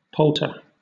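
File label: "palter", British English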